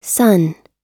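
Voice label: "son", US English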